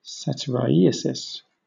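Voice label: "satyriasis", British English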